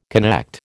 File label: "connect", American